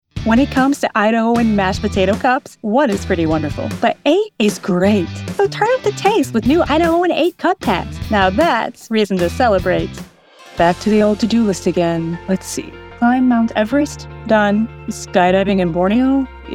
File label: Commercial Demo